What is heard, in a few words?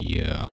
yeah random ring modulator chopped